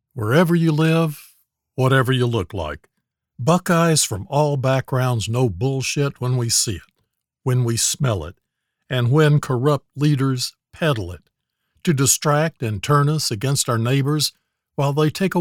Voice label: Ad; Oh No You Dont